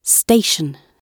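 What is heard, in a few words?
"station", British English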